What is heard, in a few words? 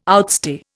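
"outstay", Indian English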